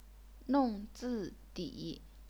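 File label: "nong zi di", fourth fourth third tones, chinese pinyin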